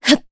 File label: Vox Casting, jp; kingsraid; voices heroes jp